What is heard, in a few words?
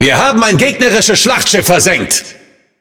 Speech Destroy Enemy Battleship, DE; World Of Warships